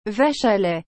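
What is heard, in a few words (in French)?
"vache à lait", French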